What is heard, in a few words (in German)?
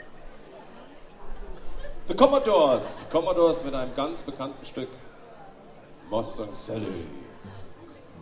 Ansage, Konzert; Boemundhof, Saarburg